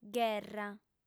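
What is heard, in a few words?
"gherra", Sardinian, female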